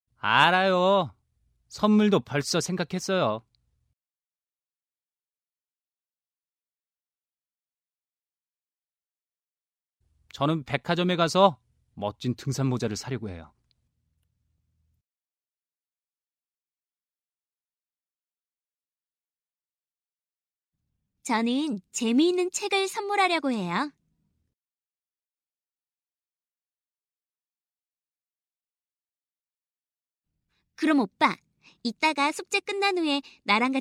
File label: mom english